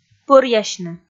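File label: "пӧръясьны", Komi, female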